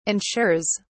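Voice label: "ensures", British English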